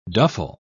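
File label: "duffle", British English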